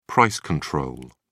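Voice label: "price control", British English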